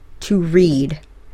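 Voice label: "to read", English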